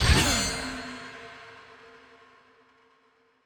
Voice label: scatter hit female